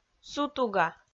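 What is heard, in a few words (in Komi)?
"сутуга", Komi, female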